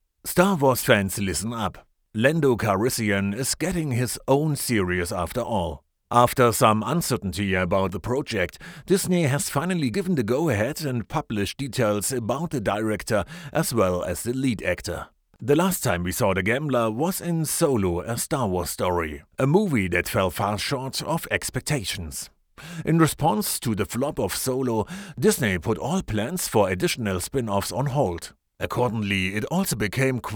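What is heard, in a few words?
German, male, English German accent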